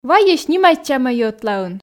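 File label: "вайӧй снимайтчамӧй ӧтлаын", Komi, female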